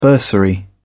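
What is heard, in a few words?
"bursary", British English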